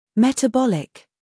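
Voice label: "humor", British; metabolic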